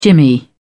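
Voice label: "jimmy", British English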